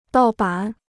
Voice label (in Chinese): "盗版", Chinese; dào bǎn; pirated; illegal